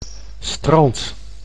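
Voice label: "strand", Dutch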